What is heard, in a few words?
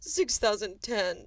sadness